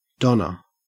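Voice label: "donna", Australian English; pronunciations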